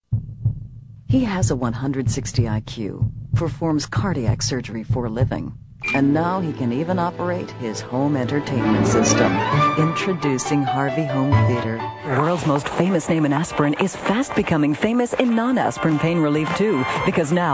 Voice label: tv commercial